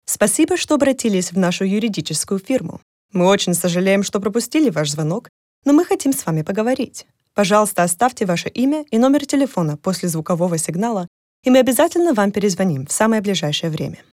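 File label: Russian, IVR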